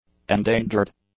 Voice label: "endangered", English; adjectives

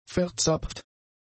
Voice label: "verzapft", German; adjectives

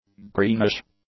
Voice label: "greenish", english; adjectives

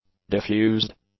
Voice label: "diffused", english; adjectives

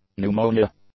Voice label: "pneumonia", English; nouns

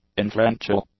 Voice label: "inferential", English

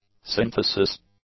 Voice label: "synthesis", english; nouns

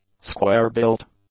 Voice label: "square-built", english; adjectives

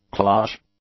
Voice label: "cloche", English; nouns

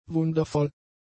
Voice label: "wundervoll", German; adjectives

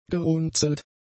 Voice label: "gerunzelt", German; adjectives

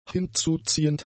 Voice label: "hinzuziehend", German; adjectives

